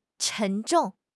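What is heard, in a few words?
"沉重", Chinese; HSK6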